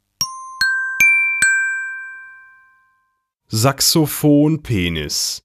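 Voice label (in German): "Saxophonpenis", German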